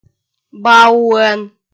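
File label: "бауӧн", Komi, female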